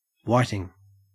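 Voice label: "whiting" English, Australian; pronunciations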